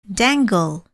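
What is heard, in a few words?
"dangle", English word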